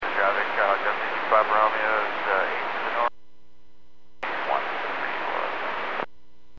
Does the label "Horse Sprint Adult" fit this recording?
No